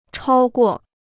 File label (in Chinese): "超过", Chinese